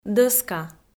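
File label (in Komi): "дӧска", Komi, female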